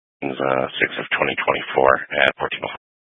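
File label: Troop 2A Dispatch, scanner audio recording; Troop 2A Dispatch (Chaffee, Custer, Fremont, Lake, Park)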